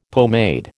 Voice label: "pomade", American